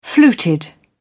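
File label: "fluted", British English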